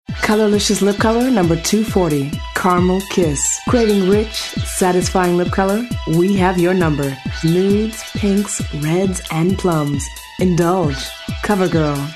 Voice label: african american commercial; AFRICAN AMERICAN » Millennial. Conversational, real, textured, resonate, athletic, and persuasive. AfAm.

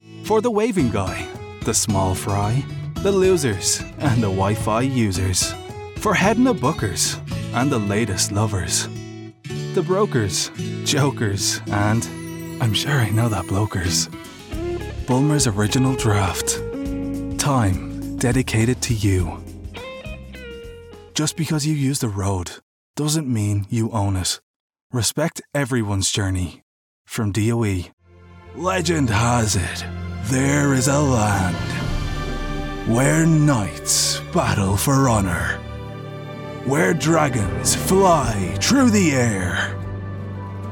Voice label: irish commercial; Warm, Confident, and Deep.

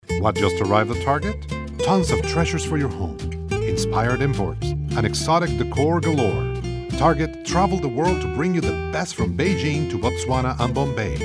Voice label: spanish accented english

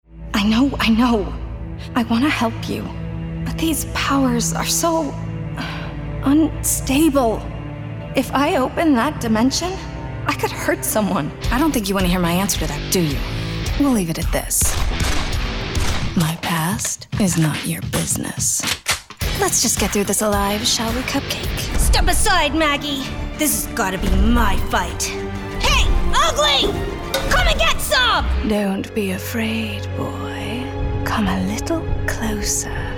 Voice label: women video games; Millennial, Young, Warm, and Real.

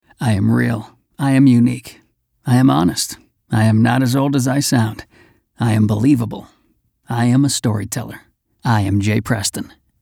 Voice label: men mini demo

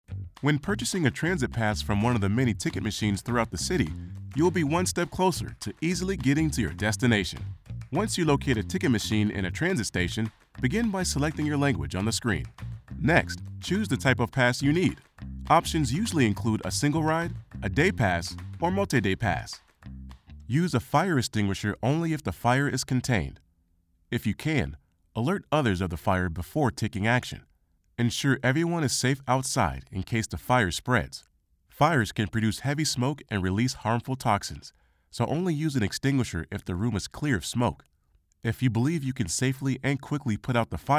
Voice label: men elearning; Baritone, confident, cool, smooth, believable, friendly, Millennial, and warm.

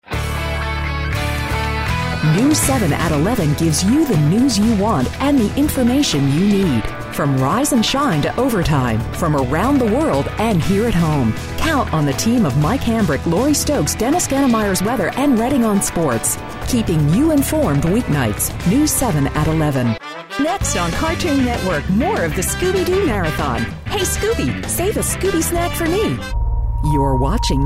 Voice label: women promos

